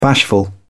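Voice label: "bashful", British English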